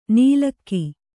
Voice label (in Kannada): "ನೀಲಕ್ಕಿ", Kannada